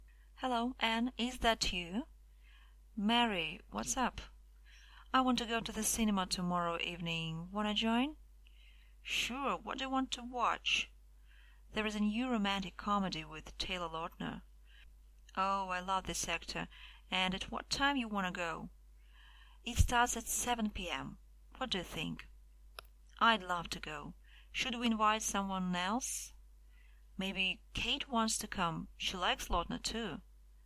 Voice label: phone talk